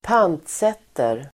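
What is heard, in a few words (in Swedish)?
"pantsätter", Swedish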